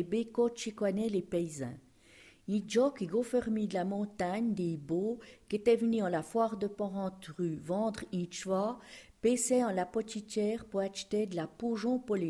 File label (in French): Patois Les souris